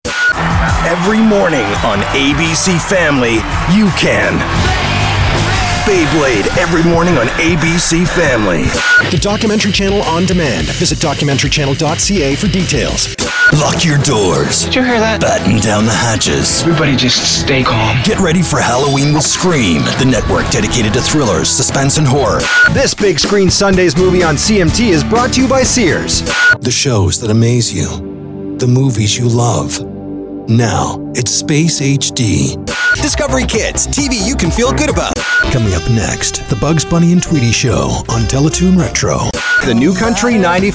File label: TV Promo, male voice talent